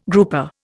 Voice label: "grouper", Indian